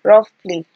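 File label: rough play, Naija, female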